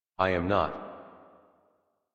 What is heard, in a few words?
Happy Adult, en, Dialog; Warframe; Duviri Paradox Quest